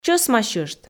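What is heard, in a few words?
"чӧсмасьышт" Komi, female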